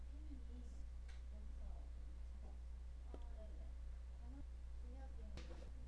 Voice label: whisper

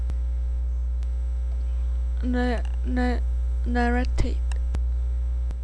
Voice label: narrate

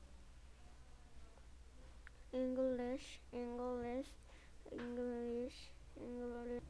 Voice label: English

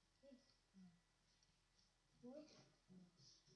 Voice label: whisper

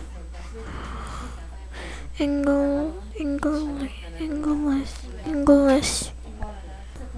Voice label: English

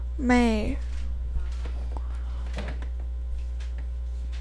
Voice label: male

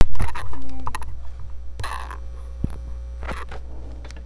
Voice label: announcement

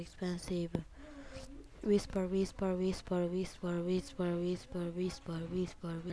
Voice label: whisper

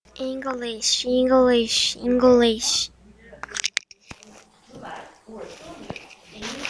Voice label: English

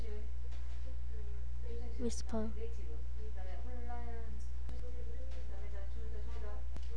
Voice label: whisper

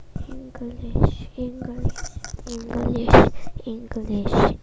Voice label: English

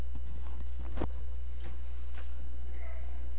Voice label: announcement

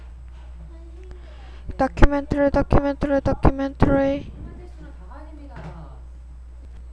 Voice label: documentary

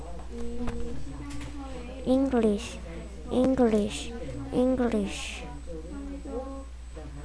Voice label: English

